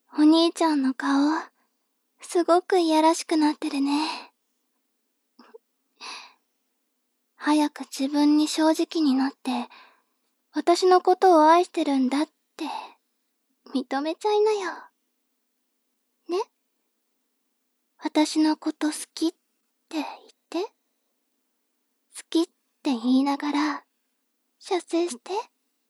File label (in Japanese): 妹 台詞